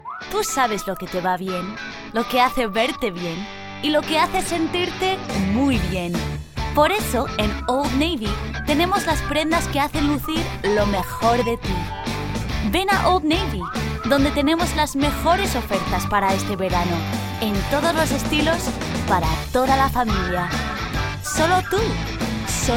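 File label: Spanish, commercial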